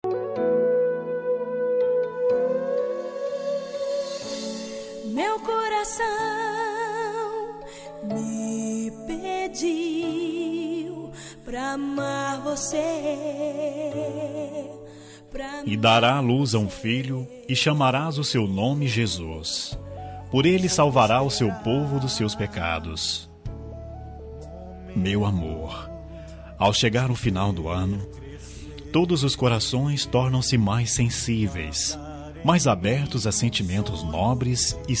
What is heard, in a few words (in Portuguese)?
natal romt masc; natal romantica voz masculina